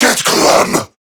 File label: Player Spotted, Male, Battle Barks; Warframe; CorruptedGrineerMale